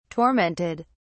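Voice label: "tormented", British English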